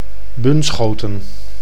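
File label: "Bunschoten", Dutch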